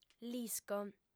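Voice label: "l'isco", female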